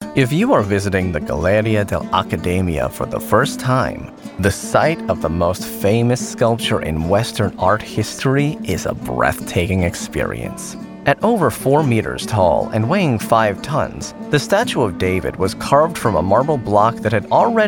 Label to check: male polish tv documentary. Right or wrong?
Wrong